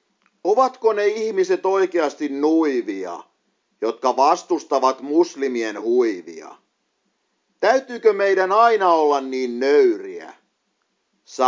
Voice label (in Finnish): nuivat, poetry